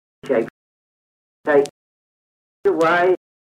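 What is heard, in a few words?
kent farningham male face, accents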